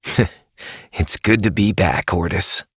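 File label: Voice, English; New War; Orbiter; Operator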